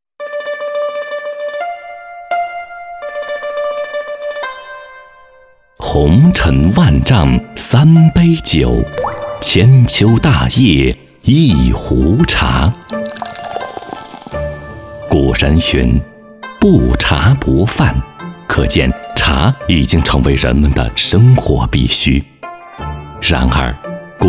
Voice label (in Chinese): 专题 产品 茶 磁性, 男国